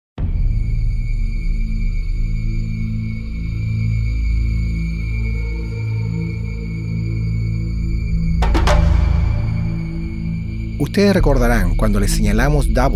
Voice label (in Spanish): Rainbow Serpent Spanish; Welcoming International Guests